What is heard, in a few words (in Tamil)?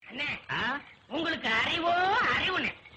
Tamil Comedy